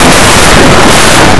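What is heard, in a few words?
"trunk", English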